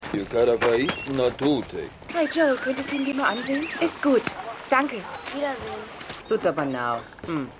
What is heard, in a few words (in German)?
Dorfbewohner Frau; Teresa Jimmy; AusgerechnetAlaska